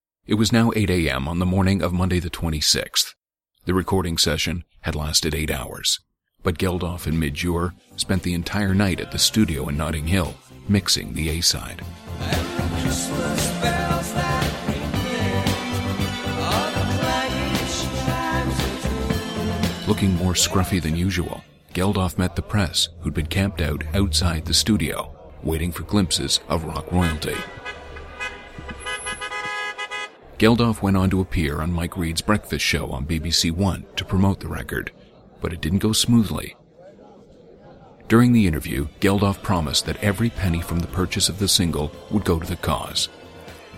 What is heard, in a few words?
Documentary